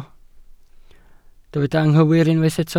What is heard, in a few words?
"anghywir", Welsh